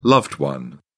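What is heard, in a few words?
"loved one", British English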